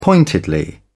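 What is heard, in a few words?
"pointedly", British English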